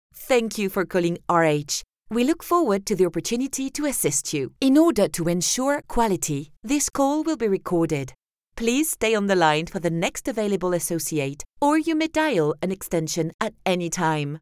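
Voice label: French, female, English, IVR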